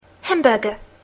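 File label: "hamburger", English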